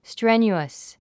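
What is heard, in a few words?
"strenuous", English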